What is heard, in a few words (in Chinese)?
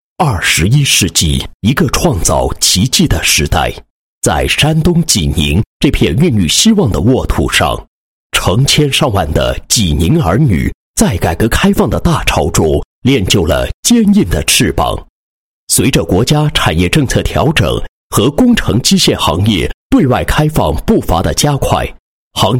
男 广告 宣传片; 蓝图影视宣传片